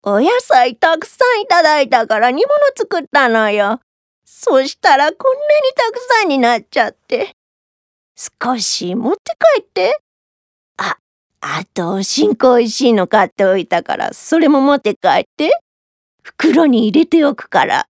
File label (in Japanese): セリフ おばあちゃん